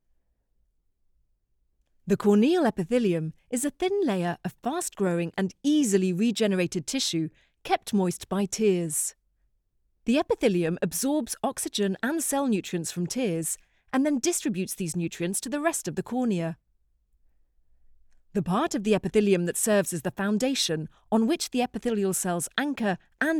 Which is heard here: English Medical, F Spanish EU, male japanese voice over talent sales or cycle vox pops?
English Medical